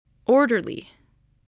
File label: "orderly", English voice